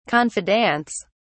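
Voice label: "confidants", British English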